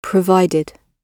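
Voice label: "provided", British English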